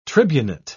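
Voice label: "tribunate", British English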